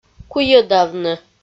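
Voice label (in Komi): "куйӧдавны", Komi-Zyrian, female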